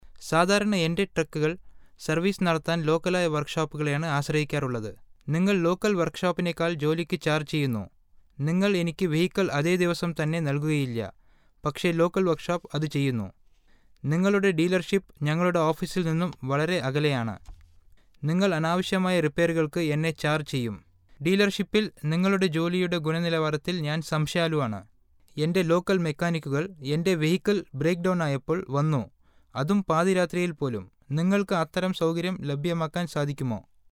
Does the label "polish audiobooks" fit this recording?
No